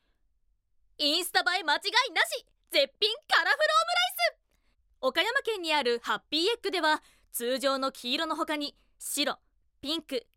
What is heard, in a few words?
Narration